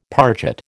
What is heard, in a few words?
"parget", American